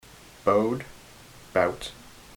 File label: bowed bout, ontario